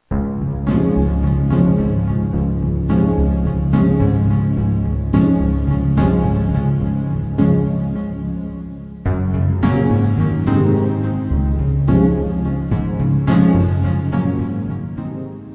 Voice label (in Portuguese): Dia Das Mães Para Tia da Escola Masculino; Telemensagens 2017